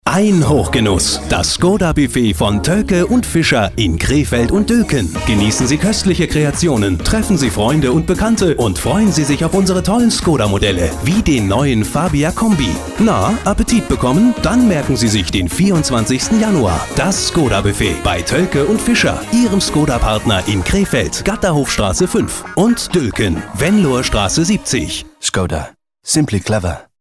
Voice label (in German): Werbung; AH Toelke Fischer; Skoda; Buffet